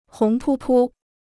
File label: "红扑扑", Chinese